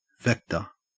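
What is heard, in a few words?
"vector", Australian English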